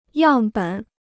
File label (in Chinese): "样本", Chinese